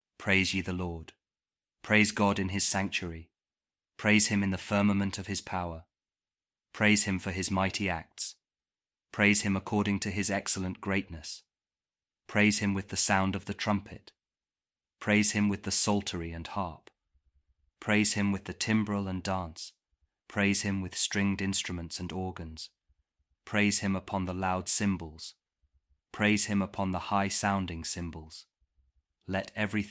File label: psalm kjv king james audio bible